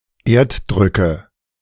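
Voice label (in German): "Erddrücke", German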